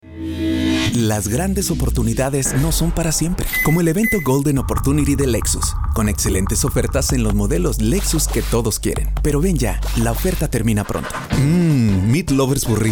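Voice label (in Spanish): Voiceover, comerciales de radio; Servicio de voiceover y producción de comerciales de radio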